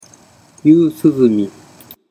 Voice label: "yusuzumi", Japanese

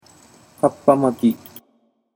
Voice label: "kappamaki", Japanese

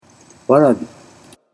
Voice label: "warabi", Japanese

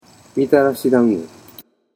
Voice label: "mitarashidango", Japanese

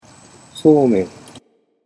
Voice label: "somen", Japanese

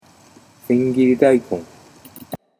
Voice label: "sengiridaikon", Japanese

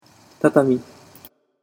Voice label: "tatami", Japanese

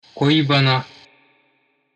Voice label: "koibana", Japanese

